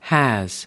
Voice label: "has", US